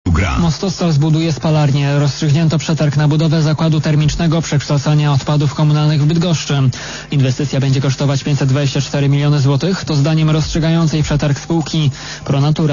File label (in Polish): radio; Mostostal wybuduje spalarnię odpadów w Bydgoszczy